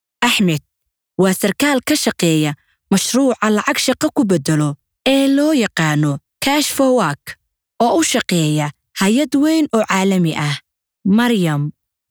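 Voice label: Somali, female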